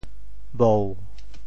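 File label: "bou7", Teochew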